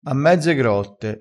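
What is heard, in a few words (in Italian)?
"a mezze grotte", dialettale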